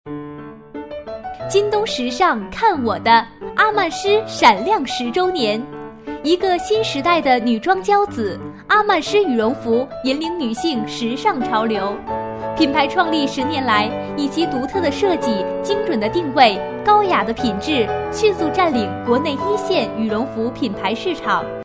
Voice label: girl ad, Chinese